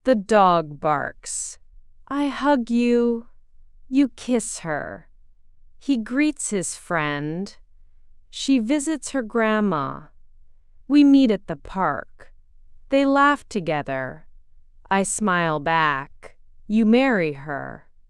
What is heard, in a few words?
AI speech